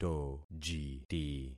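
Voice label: "tojiti", concatenated syllables; Affixing experiment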